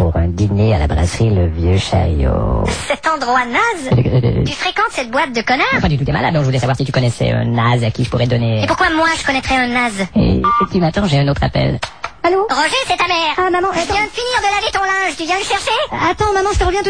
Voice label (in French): Voix comme une grenouille; Humour